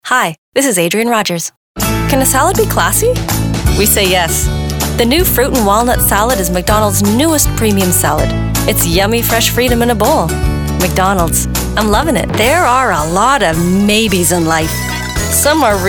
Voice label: commercial female